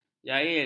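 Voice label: "jail", Malay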